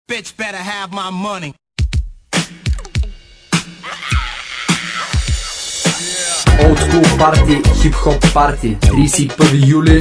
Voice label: spot